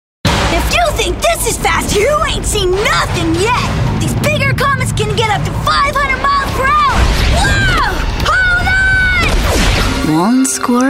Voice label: Animation

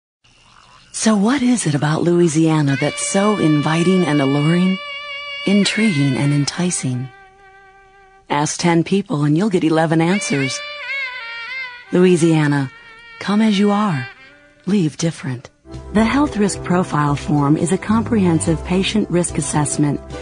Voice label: narr

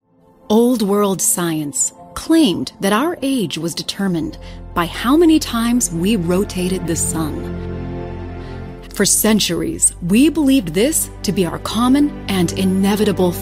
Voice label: VO Narration

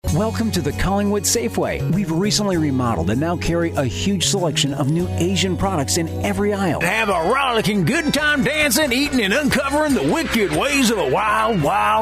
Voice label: Commercial; Applebee

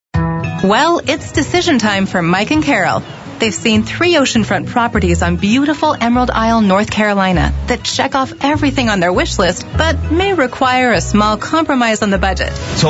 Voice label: Narration

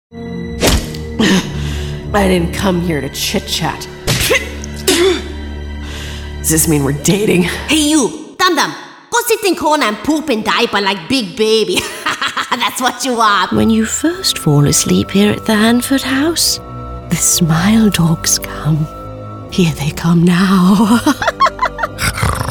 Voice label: Animation

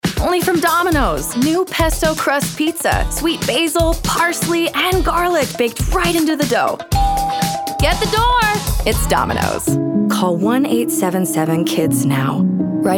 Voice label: Commercial

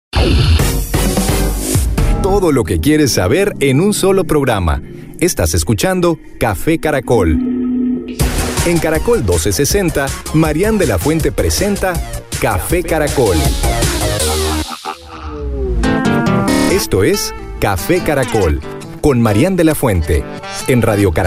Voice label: Imaging

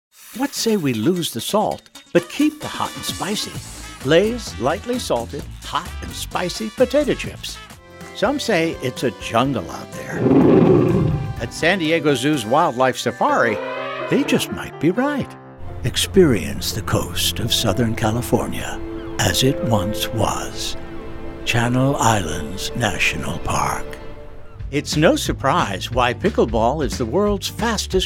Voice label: Commercial

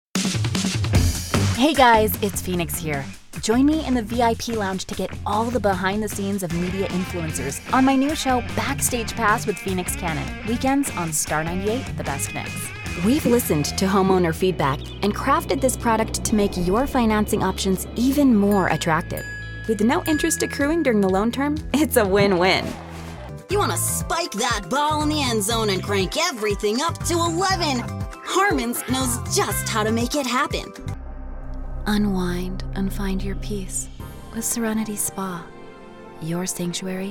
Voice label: commercial voice over

